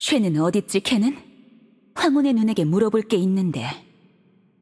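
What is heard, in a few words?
voice over, Korean; Game Outro; bloom minigame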